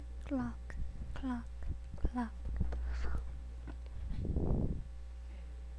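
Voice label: "clock", spoken word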